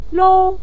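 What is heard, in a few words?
"lou", Mandarin Chinese neutral tone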